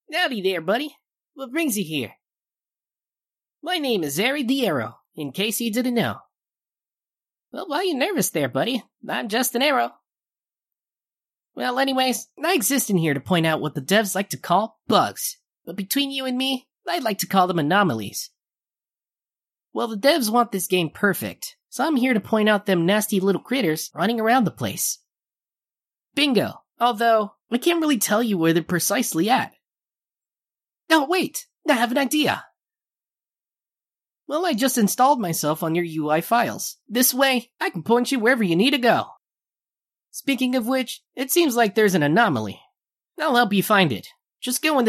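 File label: Dialogue VO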